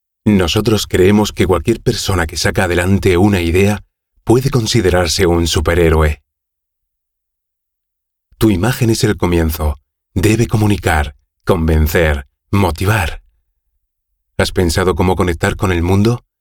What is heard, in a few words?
Euro Spanish Voice